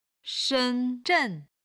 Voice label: "shen1zhen4", chinese

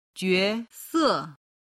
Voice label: "jue se", Chinese

